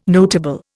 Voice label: "notable", Indian